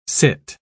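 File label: "cit", British English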